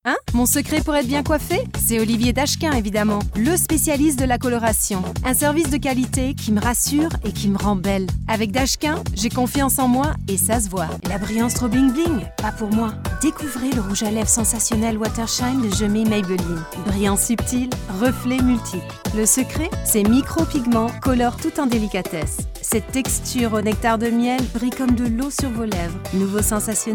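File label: French, commercial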